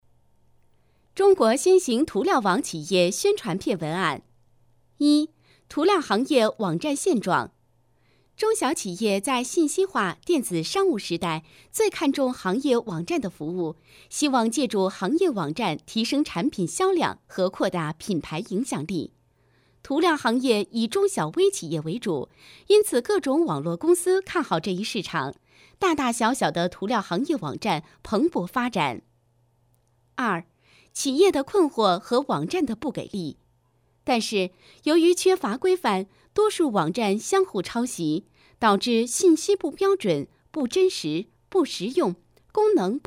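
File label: chinese mandarin female narration; voice demos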